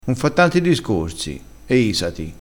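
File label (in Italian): "isati", crespinese dialettale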